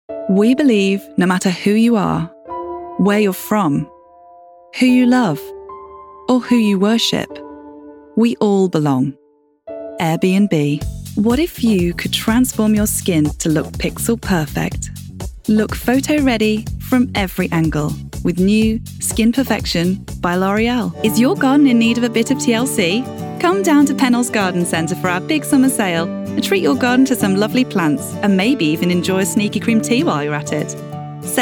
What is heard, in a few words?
commercial reel no slate